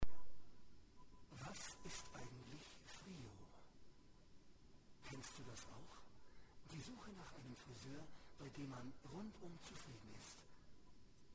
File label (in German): erklaervideo; frioo